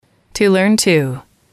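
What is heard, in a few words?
"to learn to", English, female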